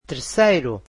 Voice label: "third", Portuguese

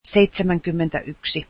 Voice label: seventy one, finnish

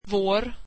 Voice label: swedish our

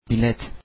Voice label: ticket, turkish

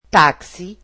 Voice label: "taxi", Portuguese

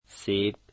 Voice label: "apples", dari

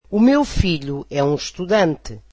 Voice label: portuguese my son is a student

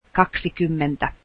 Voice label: twenty, finnish

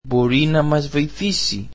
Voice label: greek can she help us

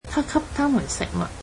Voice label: cantonese he gave them food

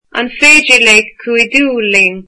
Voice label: irish can she help us

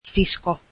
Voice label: sister, finnish

